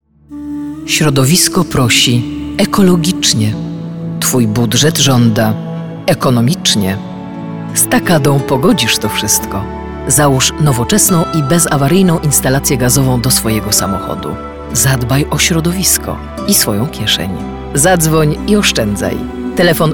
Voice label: IVR